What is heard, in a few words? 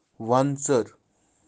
"wantser" "wantsar" rock bunting kashmiri